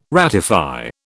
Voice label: "ratify", British